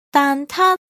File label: Egg Tart, cantonese